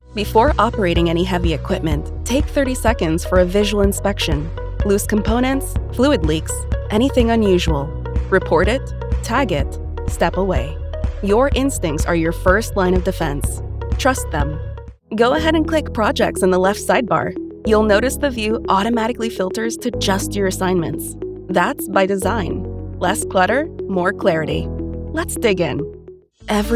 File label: eLearning